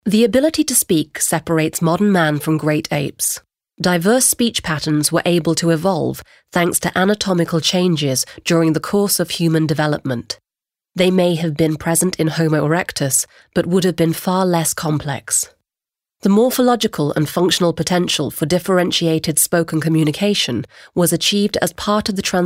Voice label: Neutral

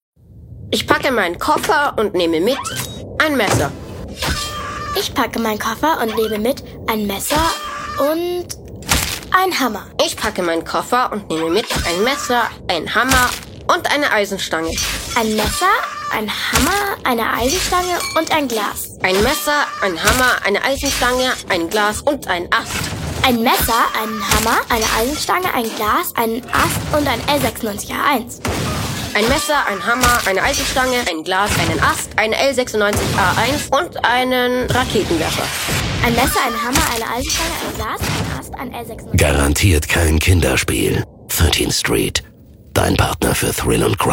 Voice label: Neutral

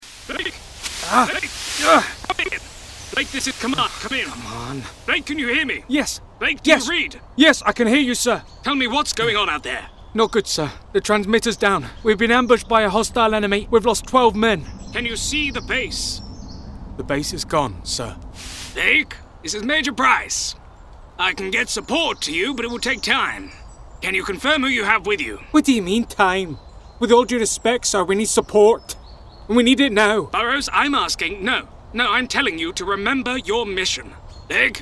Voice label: EngUK Schauspiel Variabel

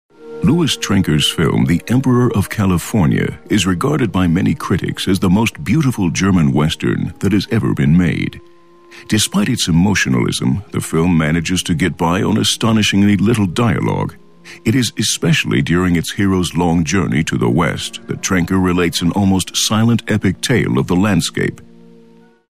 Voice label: Eng US Kommentar Neutral

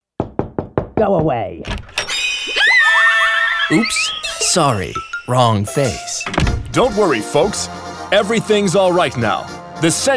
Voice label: Eng US Variabel Chargen

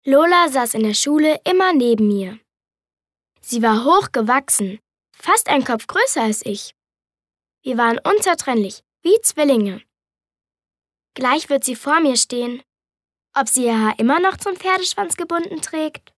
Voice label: Neutral; Schultage

